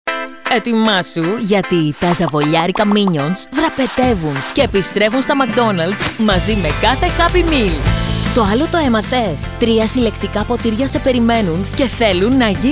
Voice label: Greek commercial